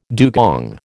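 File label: "dugong", American English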